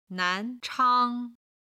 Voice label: "nan2chang1", chinese